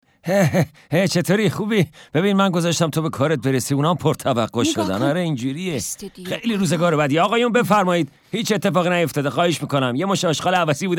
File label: dub